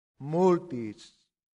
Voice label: "multis", latin; santillana